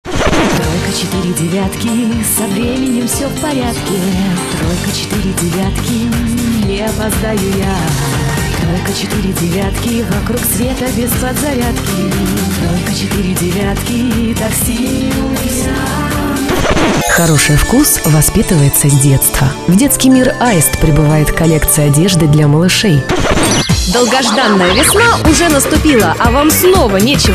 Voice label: rus